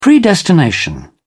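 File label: "predestination", British English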